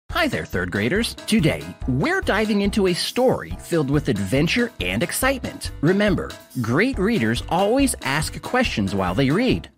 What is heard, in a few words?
Narrator Children's Story